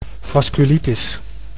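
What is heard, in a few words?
"vasculitis", Dutch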